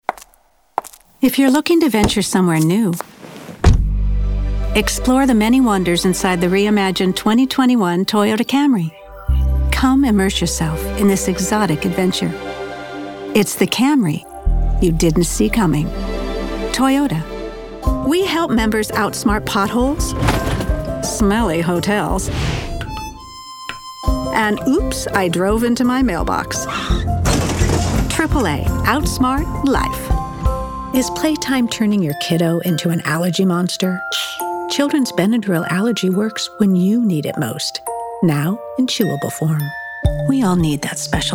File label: Commercial Voiceover